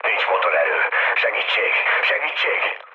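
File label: Hungarian damaged engine mood low, speech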